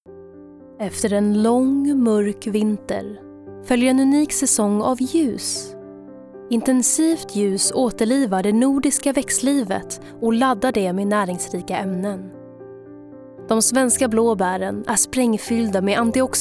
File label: female swedish commercial